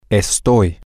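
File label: I am temporary, spanish, male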